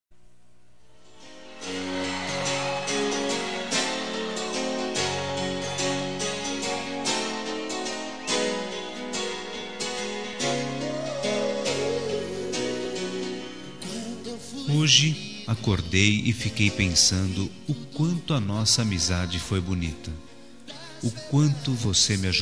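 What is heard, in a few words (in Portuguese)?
ROM RECONCILIACAO MASCULINO; Telemensagens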